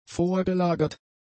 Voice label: "vorgelagert", German; adjectives